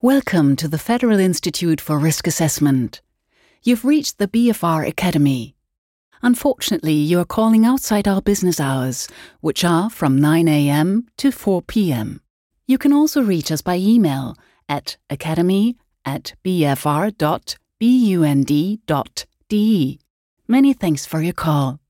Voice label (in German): ansagen telefon engl